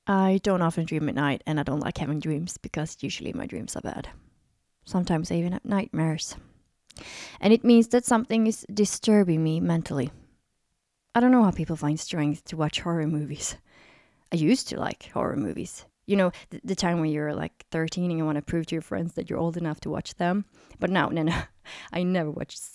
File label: American English